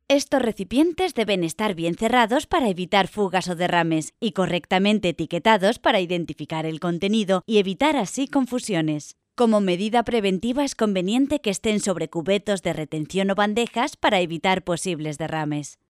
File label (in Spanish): locutora spain